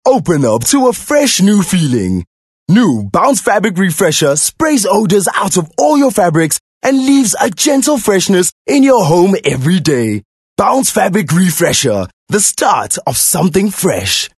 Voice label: English bright